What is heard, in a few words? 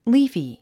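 "leafy", English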